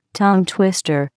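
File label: "tongue twister", English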